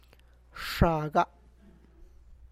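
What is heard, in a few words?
my cheek, Tlacochahuaya Zapotec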